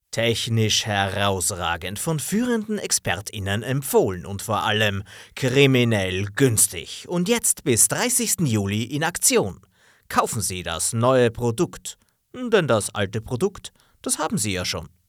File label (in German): Werbespot, sprecher